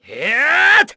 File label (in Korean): Vox Casting, kr; Zafir; kingsraid; voices heroes